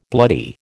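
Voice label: "bloody", American English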